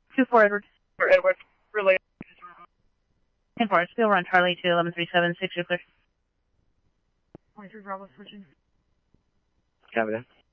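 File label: scanner, Phoenix Police Department; PPD-200 A; RWC; Thompson Peak High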